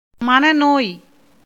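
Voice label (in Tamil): "மனநோய்", Tamil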